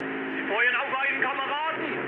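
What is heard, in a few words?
German player friendly fire mood high, speech; War Thunder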